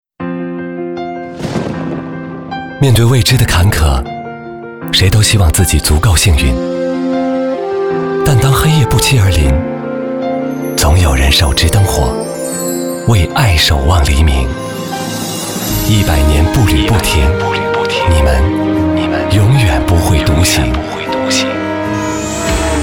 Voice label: 走心温暖; 走心温暖友邦保险

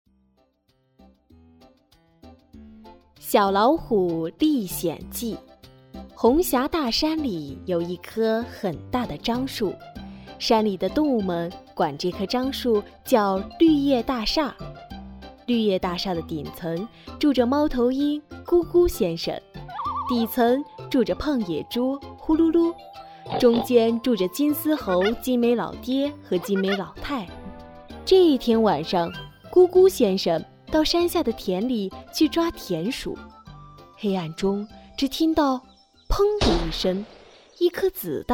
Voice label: 儿童故事; 小老虎历险记

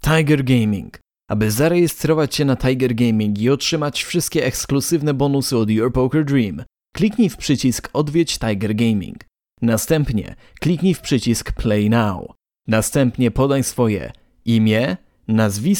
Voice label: 波兰语男深情讲述

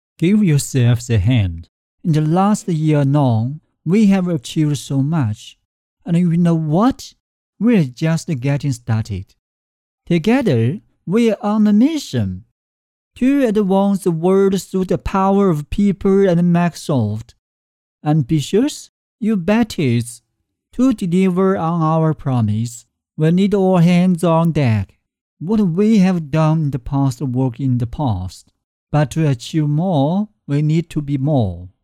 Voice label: 英语 领导讲话; 国籍英语 鲸读全球 领导讲话

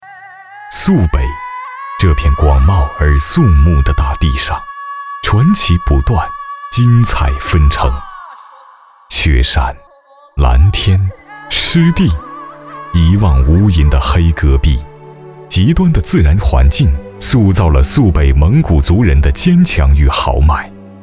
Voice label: 讲述; 讲述-马鬃山邮电所

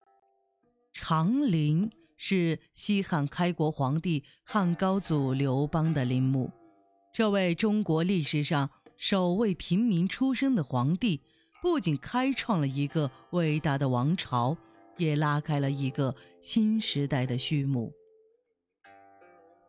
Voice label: 纪录片; 纪录片帝陵片段

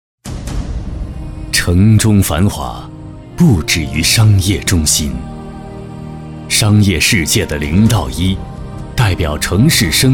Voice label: 广告大气; 协信

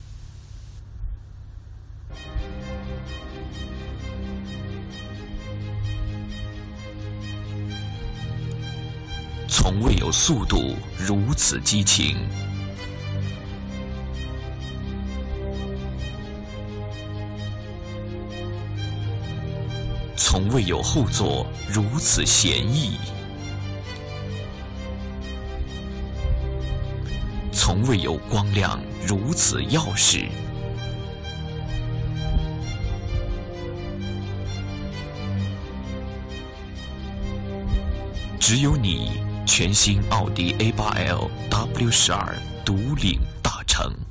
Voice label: 广告; 奥迪a8l

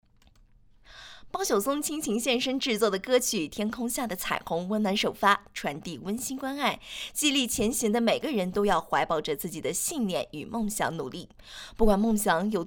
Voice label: 综艺类

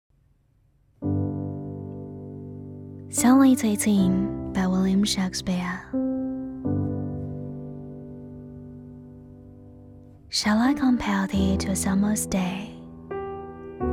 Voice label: 英文诗

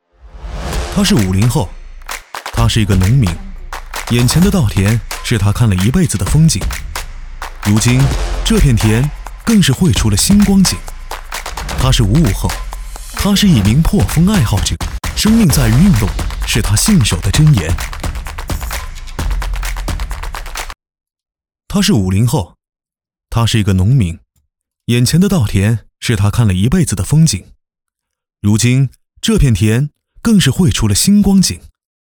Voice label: 快节奏广告年轻活泼

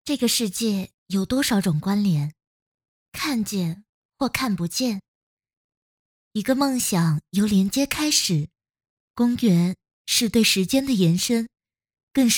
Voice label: 企业宣传片

